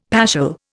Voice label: "paschal", Indian; Dictionary